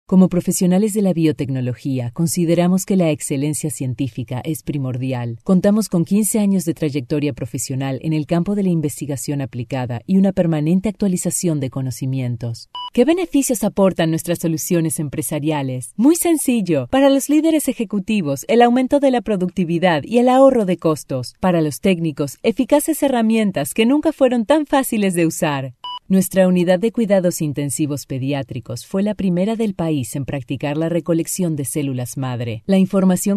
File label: argentinean spanish female corporate neutral